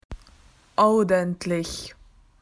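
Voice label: "to tidy", Australian English; PictureDictionary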